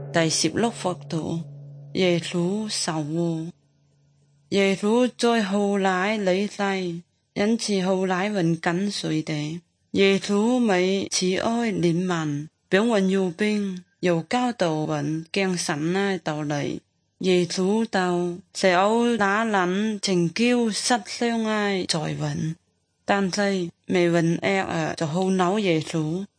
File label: good news zhuang guigang guiping